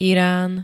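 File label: "Irán", Slovak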